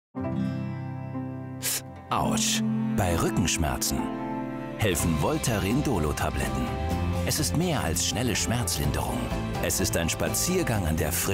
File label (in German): Werbung Strandspaziergang; Voltaren Dolo